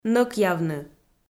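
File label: "нӧкъявны" Komi-Zyrian, female; kpv audio dict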